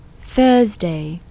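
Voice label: English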